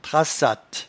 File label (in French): "trassatte", patois jura